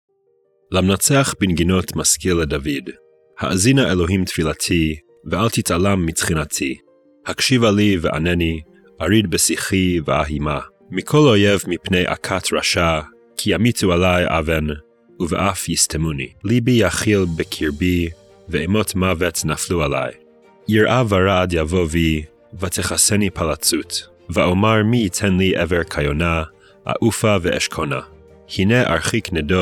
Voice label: poetry and prayer psalm hebrew